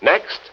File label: next, announcer